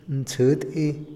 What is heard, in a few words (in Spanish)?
clavo, Otomí, hombre; INALI